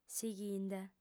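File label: "sighinde", female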